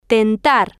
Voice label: "tentar", spanish

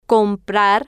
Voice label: "comprar", spanish

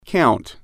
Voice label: "count", English

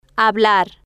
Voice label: "hablar", Spanish

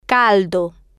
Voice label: "caldo", Spanish

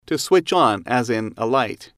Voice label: to switch on light, English

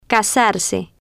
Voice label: "casarse", Spanish

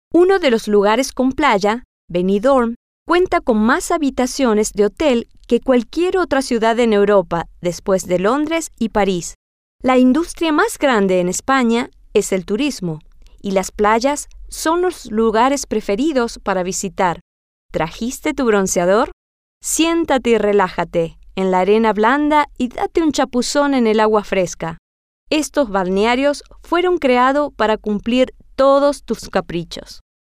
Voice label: spanish; las Playas

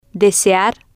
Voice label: "desear", Spanish

